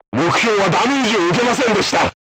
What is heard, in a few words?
voice message armor not pierce, crew tanks japanese